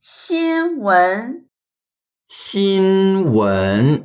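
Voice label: "xin1wen2", Mandarin Chinese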